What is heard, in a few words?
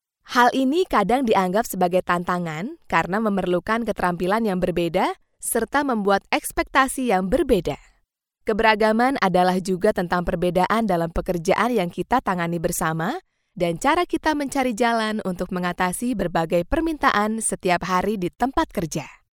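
Indonesian, female, e-learning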